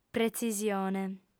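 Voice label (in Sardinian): "pretzisione", female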